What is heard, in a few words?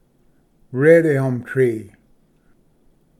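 "red elm tree", English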